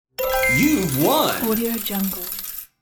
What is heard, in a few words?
you've won announcement; applehillstudios